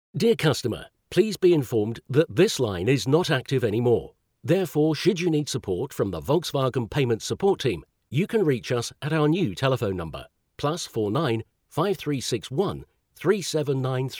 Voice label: Customer Payments Line Message; Volkswagen